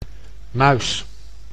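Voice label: "muis", Dutch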